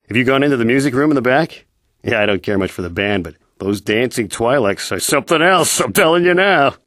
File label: male